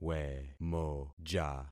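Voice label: "wemoja", concatenated syllables; Affixing experiment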